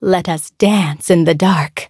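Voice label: level up, English; cookie run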